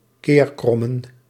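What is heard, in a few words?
"keerkrommen", Dutch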